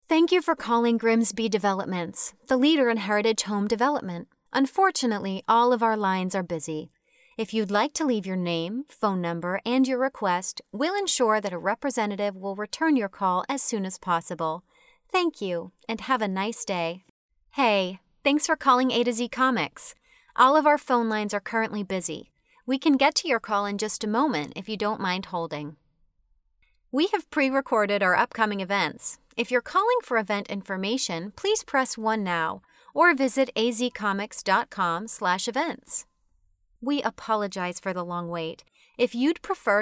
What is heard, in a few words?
IVR